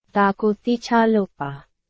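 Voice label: Burmese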